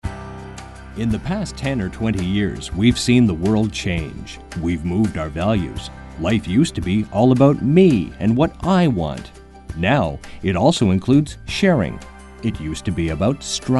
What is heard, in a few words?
narration